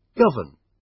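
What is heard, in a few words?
"govern" British; Space, the planets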